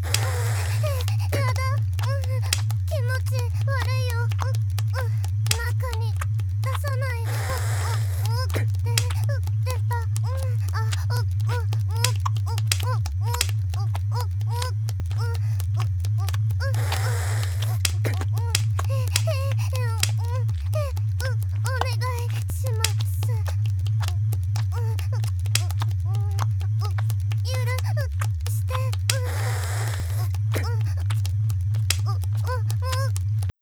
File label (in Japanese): 大切な君へのメッセージ, ASMR